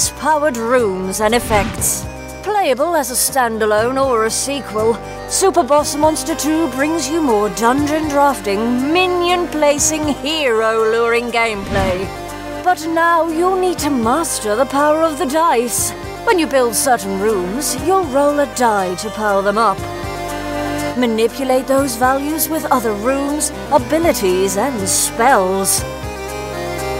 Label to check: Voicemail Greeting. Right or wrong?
Wrong